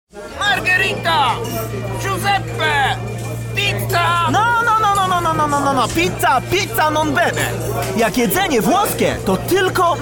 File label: polish voiceover Commercials